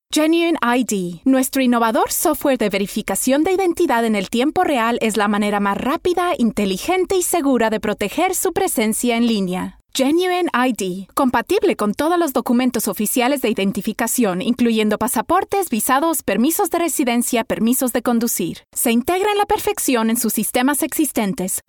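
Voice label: Spanish Latin American female Corporate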